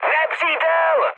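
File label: enemy detected, Czech, mood med; War Thunder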